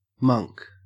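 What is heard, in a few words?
"monk", Australian English; pronunciations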